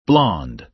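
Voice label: "blonde", American English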